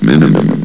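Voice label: "minimum", male; valve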